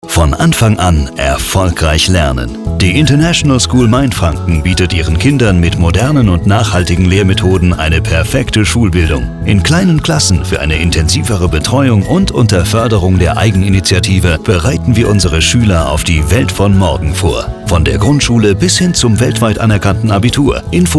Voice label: Radio Spot